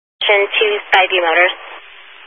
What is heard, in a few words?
Highway Patrol, Phoenix Metro East Area, scanner